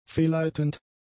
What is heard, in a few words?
"fehlleitend", german; adjectives